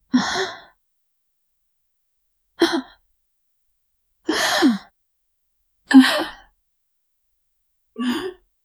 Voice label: normal female noticing, reaction